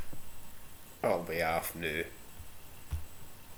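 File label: "ahll", slang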